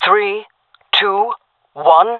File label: countdown, jungle fem; player vo jungle fem